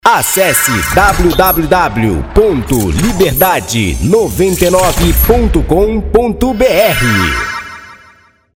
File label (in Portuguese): vinheta radio 99.3 fm locutor; saite da radio 993 fm